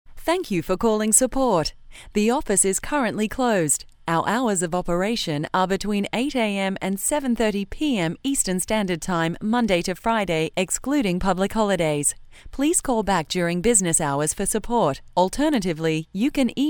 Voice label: On Hold Australian Female; commercial voiceover